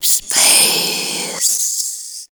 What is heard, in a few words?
WHISPER; Best Service; Voice Spectral II; WHISPERS